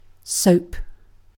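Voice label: "soap", British English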